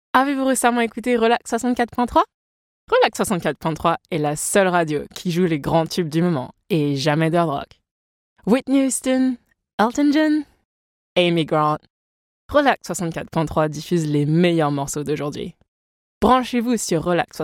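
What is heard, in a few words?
French, Radio; voice demos; GoLocalise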